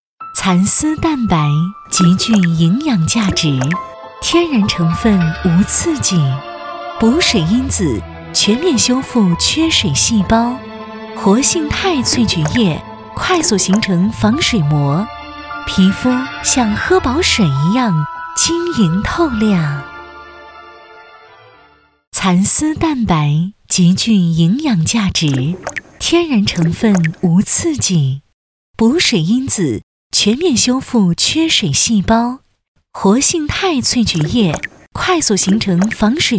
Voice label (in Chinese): 广告 化妆品 亲切